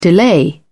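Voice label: "delay", British English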